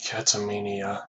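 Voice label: "catamenia", US English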